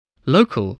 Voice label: "local", spelling